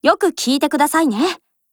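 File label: Japanese; MP40; tip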